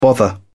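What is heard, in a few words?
"bother", British English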